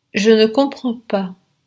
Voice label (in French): je ne comprends pas, pronunciation fr